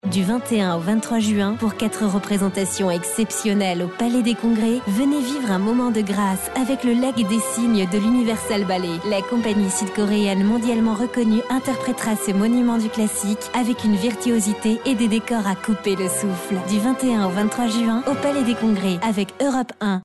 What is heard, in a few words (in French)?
voix off femme spot radio; europe 1